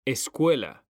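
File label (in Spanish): "escuela", Spanish; mekanta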